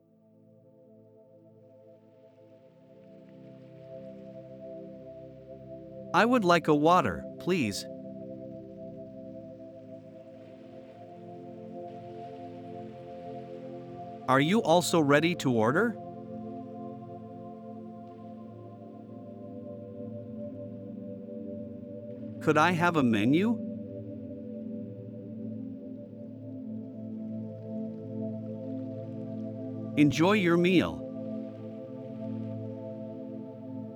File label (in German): Im Restaurant Relax Dialog; en-de